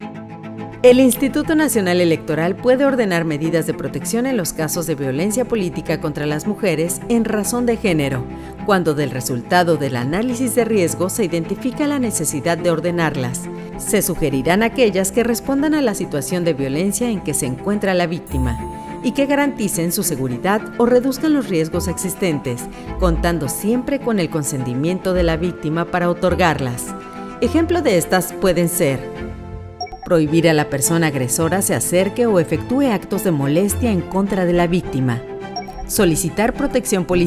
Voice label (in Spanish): ESPANOL